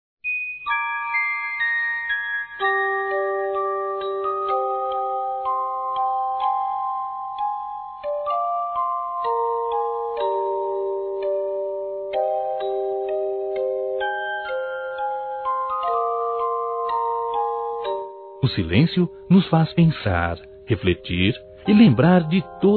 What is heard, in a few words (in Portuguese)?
BOA NOITE ROMANTICO, masc; Bom dia boa tarde boa noite